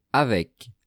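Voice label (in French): "avec", French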